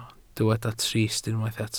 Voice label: "trist", Welsh